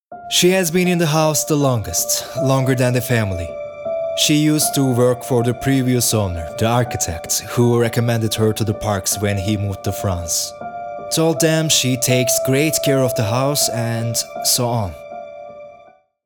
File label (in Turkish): ingilizce metin